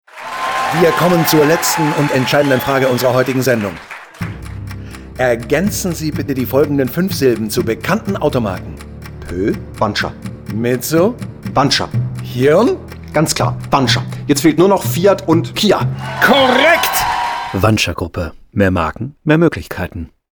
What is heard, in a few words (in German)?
Radiospot; enorMedia; Wandscher Gruppe; der große Preis